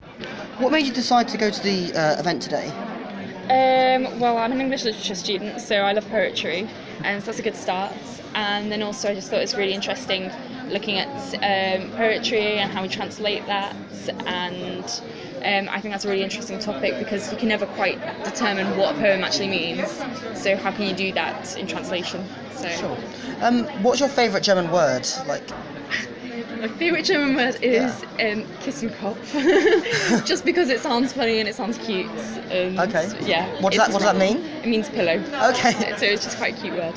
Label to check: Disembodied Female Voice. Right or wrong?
Wrong